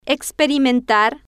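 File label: "experimentar", Spanish, female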